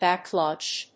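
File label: "backflush", English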